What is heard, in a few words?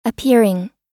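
"appearing", US English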